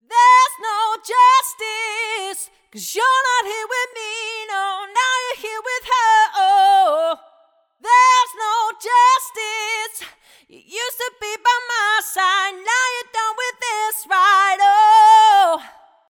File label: vo dry